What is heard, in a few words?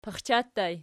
"paxcha", Archi